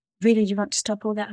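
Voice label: Voice Acting es, female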